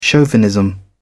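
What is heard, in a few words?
"chauvinism", British English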